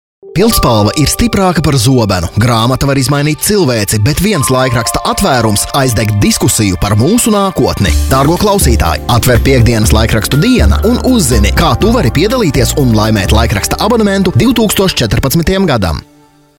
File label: Latvia male; lektoring